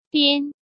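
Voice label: "Biān", Chinese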